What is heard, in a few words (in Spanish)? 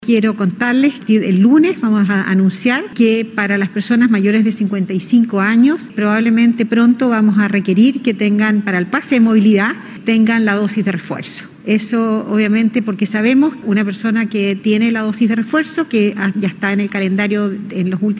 cuña